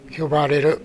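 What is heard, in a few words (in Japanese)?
"yobareru", 南山形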